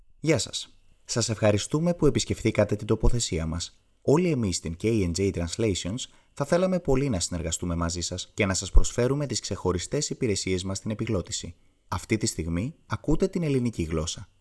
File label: greek male